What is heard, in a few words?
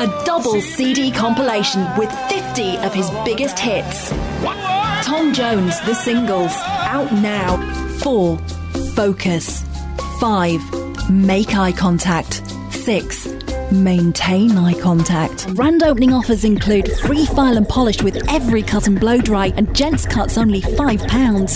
voice british